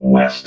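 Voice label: "west", robot voice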